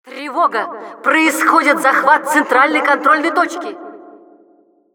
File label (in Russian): Announcer alert center control being captured, ru; Тревога! Происходит захват центральной контрольной точки!